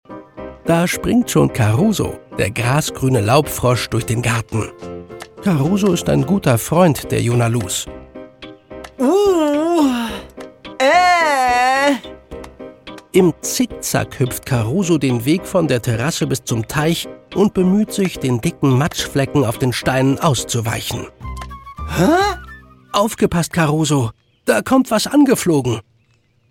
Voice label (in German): Kinderhörspiel Erzähler